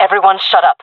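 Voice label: request quiet, jungle female; vo; Counter Strike Model Pack; CS:GO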